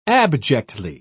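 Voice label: "abjectly", British English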